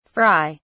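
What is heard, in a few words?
"fri", British English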